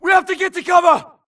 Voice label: grunt flee Player Titan Group Call; imc; Titanfall 2

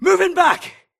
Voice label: grunt grenade response; imc; Titanfall 2

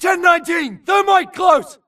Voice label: grunt react Grenade Thermite; imc; Titanfall 2

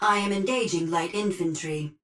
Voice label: titan Vanguard auto Engage Grunt; Titanfall 2

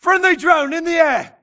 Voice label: grunt react drone spawn friendly; imc; Titanfall 2

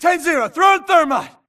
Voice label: grunt using grenade thermite; imc; Titanfall 2

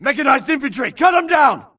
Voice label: grunt engaging Spectre; imc; Titanfall 2

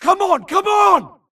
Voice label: grunt flee Player Titan Call; imc; Titanfall 2

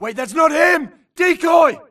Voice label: grunt react Holopilot Decoy; imc; Titanfall 2

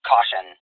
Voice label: titan Tone caution; Titanfall 2

